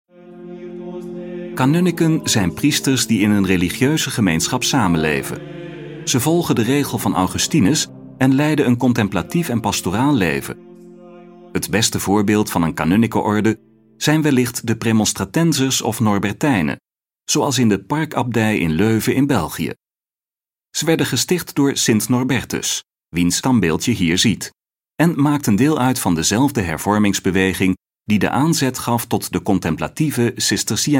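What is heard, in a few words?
Dutch, male, narration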